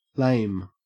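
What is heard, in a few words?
"lame", Australian English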